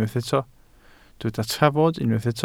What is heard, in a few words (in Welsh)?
"trafod" Welsh